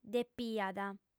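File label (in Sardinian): "depiat", female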